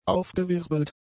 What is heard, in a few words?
"aufgewirbelt", German; adjectives